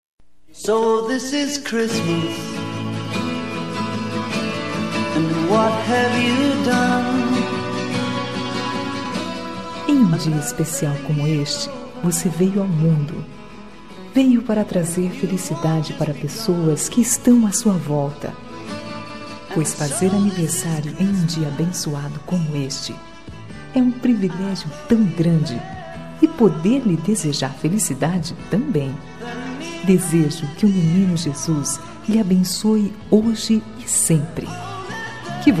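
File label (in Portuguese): Feliz Natal e Feliz Aniversario, female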